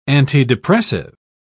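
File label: "antidepressive", British English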